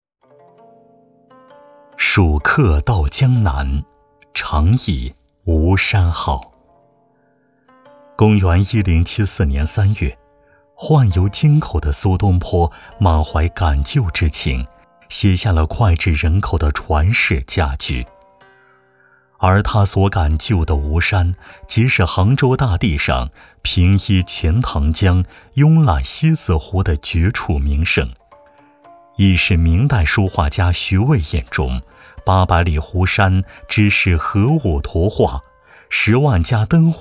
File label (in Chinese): 人文宣传; 十五奎巷